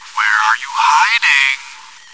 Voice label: taunt, voice commands, bot; svencoop